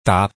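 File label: "tá", Hakka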